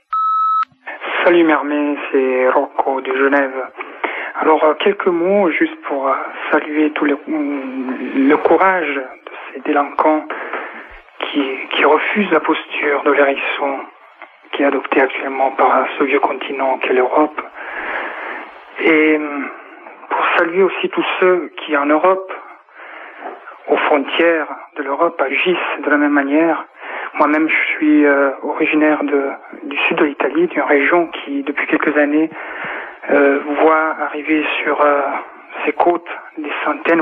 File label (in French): Immigration clandestine repondeur